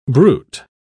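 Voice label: "bruit", English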